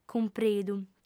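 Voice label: "cumpridu", female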